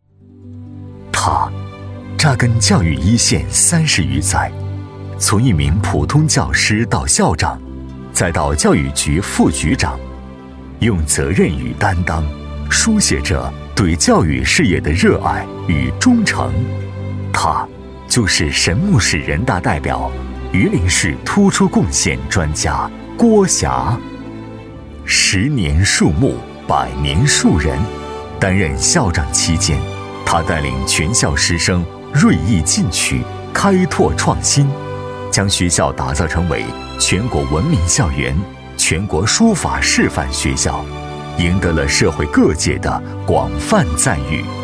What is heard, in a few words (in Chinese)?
男 人物 温情